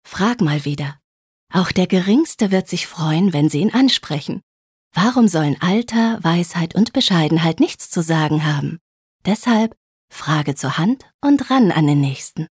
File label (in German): Werbung Sanft